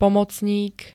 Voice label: "pomocník", Slovenské

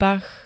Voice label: "pach", Slovenské

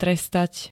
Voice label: "trestať", Slovenské

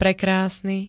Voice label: "prekrásny", Slovenské

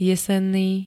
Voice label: "jesenný", slovenské

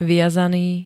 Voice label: "viazaný", slovenské; v. trh, op. voľný; v. vklad vinkulovaný

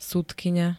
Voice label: "sudkyňa", Slovenské

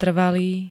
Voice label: "trvalý", slovenské

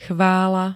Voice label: "chvála", Slovenské

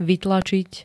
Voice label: "vytlačiť", Slovenské

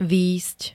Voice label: "vyjsť", Slovenské

